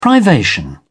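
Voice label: "privation", British English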